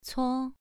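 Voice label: "cuo" Mandarin, second tone, pronunciation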